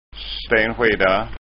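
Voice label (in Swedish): "stenskjida", Scanian dialect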